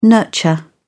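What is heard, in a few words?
"nurture", English, British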